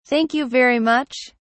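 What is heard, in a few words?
"thank you very much", English, British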